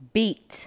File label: "beat", english